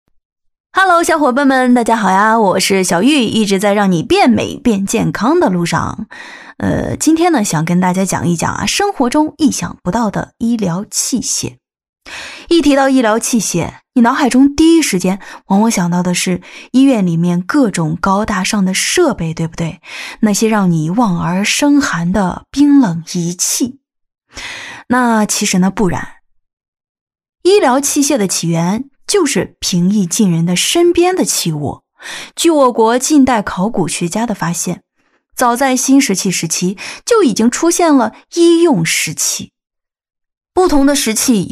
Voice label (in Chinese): 女 电台主持人旁白 医疗器械; 素人自然; 《你意想不到医疗器械》